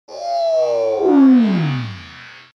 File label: extended oof